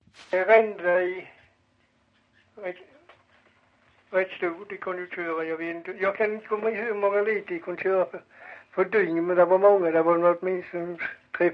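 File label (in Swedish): Farstorp Skåne, dialekter; Folkminnen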